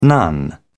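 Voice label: "nun", English, British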